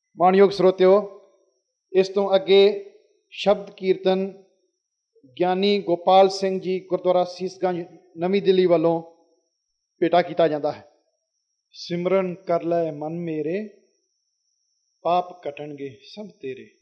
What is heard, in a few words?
Announcement; amritsar